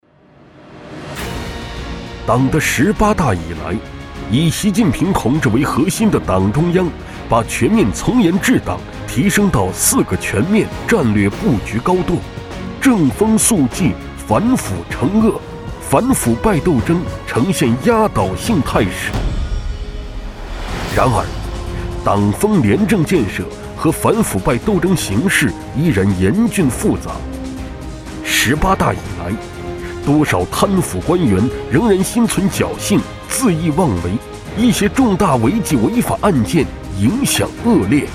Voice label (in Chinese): 男 纪录片 党员干部警示教育 解说